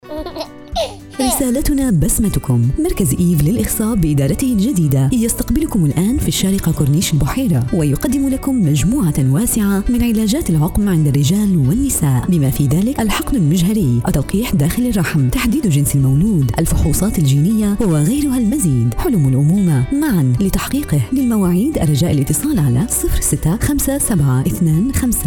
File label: Arabic Radio TV Commercial Voice